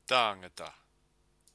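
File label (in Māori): "tangata", Māori word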